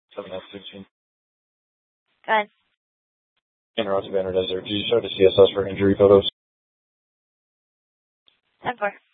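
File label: police dispatch, scanner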